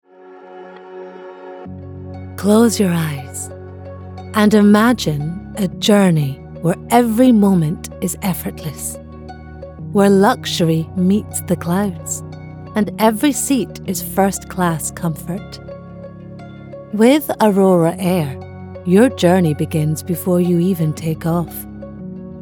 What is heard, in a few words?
female arabic and english scottish scottish and arabic accents; commercial